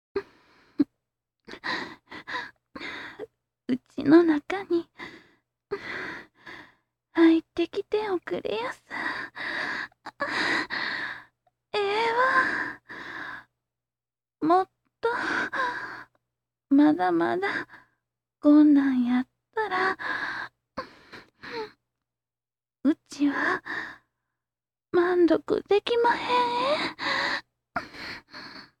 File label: adult